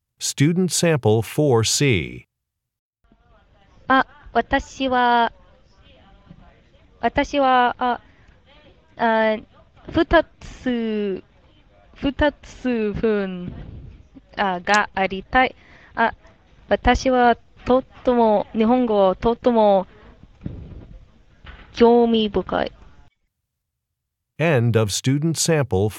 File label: japanese conversation